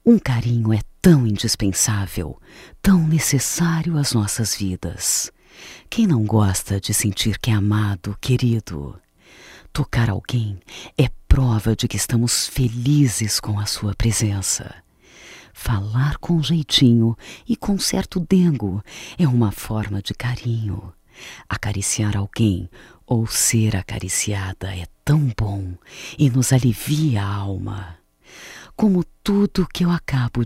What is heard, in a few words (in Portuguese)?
Feminino; Carinhos Feminino; Amor